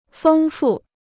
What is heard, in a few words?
"丰富", Chinese